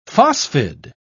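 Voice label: "phosphide", British English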